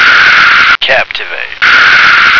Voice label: "captivate", American